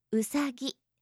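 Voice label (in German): "usagi", japanische Mädchennamen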